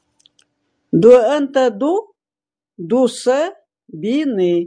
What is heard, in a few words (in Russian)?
УЛЬЧСКИЙ В тайге живёт тигр